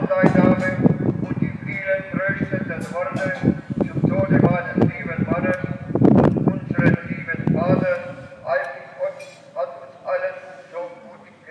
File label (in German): danksagung ausruf im offentlichen raum